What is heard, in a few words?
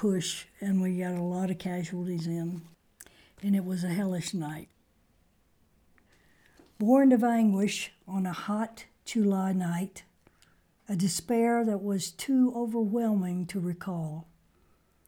Poem Read